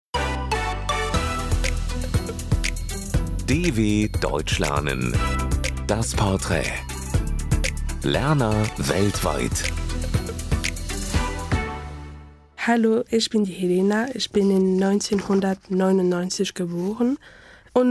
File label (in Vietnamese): Luyen nghe tieng Duc B1